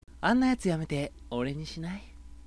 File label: sample sweet hi, voice